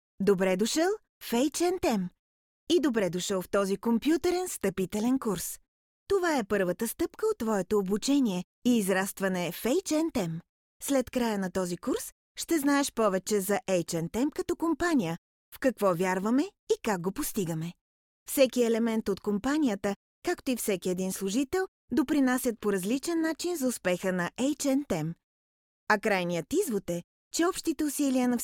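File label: bulgarian female e-learning, voice demos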